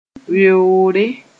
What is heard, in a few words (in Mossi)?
"weoore", moore